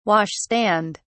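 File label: "wash stand", British English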